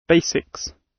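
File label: basics, British; Study, education, research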